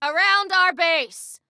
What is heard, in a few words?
Enemy Around Our Base, Female